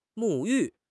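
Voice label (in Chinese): "沐浴", Chinese; HSK; HSK6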